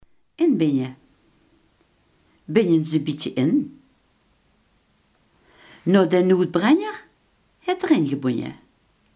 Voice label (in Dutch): "inbinden", Limburgs